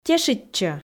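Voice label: "тешитчӧ", Komi, female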